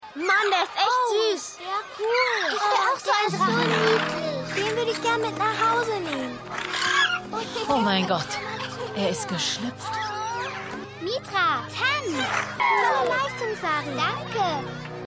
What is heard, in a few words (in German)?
Kinder, Stimmproben